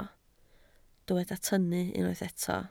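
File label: "tynnu" Welsh, spoken word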